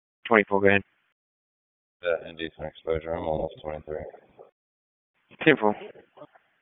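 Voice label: Police Dispatch, p25; Raleigh Police Northeast District Disp; wake p25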